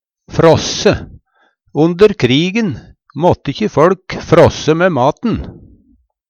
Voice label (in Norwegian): "fråsse", Numedalsmål; Onde krigen måtte ikkje føLk fråsse mæ maten.